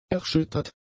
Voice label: "erschüttert", German; adjectives